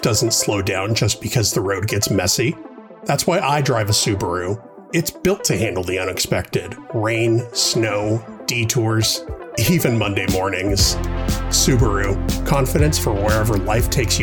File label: Commercial; Subaru; Phoenix AZ; home studio